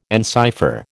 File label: "encipher", American